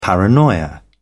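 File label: "paranoia", British English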